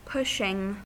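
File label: "pushing", US English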